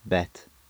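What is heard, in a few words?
"bet", English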